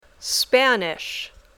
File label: Spanish